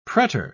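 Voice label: "pretor", British English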